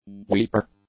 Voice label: "weeper", English

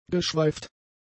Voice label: "geschweift", German; adjectives

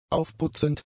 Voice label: "aufputzend", German; adjectives

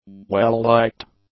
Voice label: "well-liked", english; adjectives

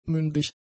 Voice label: "mündig", German; adjectives

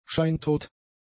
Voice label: "scheintot", german; adjectives

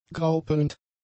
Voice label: "graupelnd", German; adjectives

